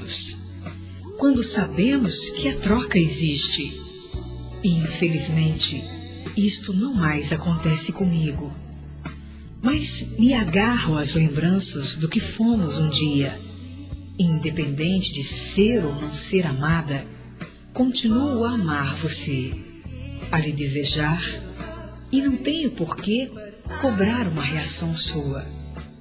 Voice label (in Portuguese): SAUDADE EX AMOR, Telemensagens, Amor, Fem